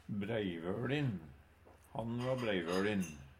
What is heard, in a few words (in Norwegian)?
"breivøLin", Numedalsmål; Adjektiv